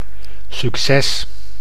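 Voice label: "succes", Dutch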